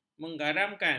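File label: "mengaramkan", Malay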